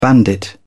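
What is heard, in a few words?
"bandit", British English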